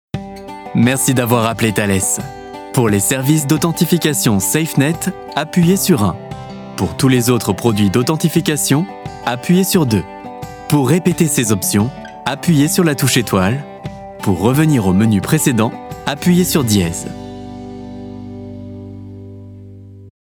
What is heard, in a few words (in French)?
FR